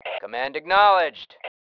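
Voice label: command acknowledge, male; cmdacknowledge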